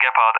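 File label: "Gepard", English; AirDefence; Allied Flight; DCS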